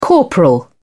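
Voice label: "corporal", British English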